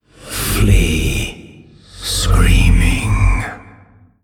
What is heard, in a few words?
Male Old God In Play